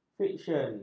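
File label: "fiksyen", Malay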